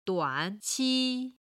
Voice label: "duanqi", chinese

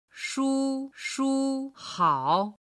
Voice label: "shushuhao", Chinese

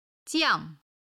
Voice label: "jiang4", Chinese

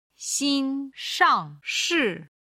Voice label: "xinshangshi", Chinese

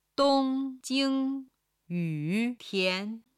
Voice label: "dong1jing1yu3tian2", Chinese

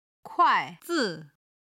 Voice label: "kuai4 zi5", Chinese

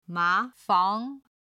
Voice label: "ma2 fang2", Chinese

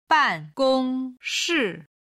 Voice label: "ban4gong1shi4", Chinese

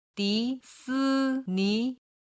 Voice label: "disini", Chinese

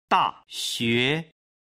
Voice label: "da xue", Chinese

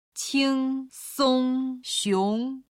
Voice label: "qingsongxiong", Chinese

